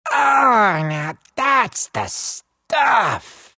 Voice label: happy; dota; meepo

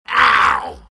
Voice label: tusk pain

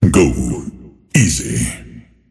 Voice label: lasthit pain; dota; earthshaker; arcana

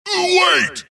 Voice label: wraith death; dota; skeleton king

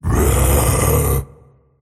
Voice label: anger; tiny

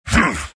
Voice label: pain; dota; earth spirit

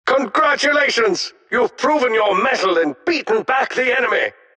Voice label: announcer victory; dlc defensegrid

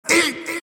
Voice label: monkey crown pain; dota; monkey king

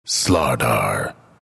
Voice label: announcer pick slardar; dlc bastion

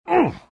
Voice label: lion pain; dota

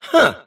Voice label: happy; davion; dota; dragon knight

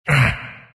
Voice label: grunts; dota; elder titan

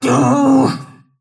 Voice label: anger; snapfire

